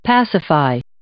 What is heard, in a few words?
"pacify" verb